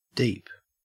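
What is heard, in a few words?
"deep", Australian English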